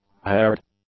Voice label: "haired", english; adjectives